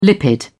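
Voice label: "lipid", British English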